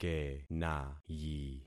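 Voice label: "kenayi", concatenated syllables; Affixing experiment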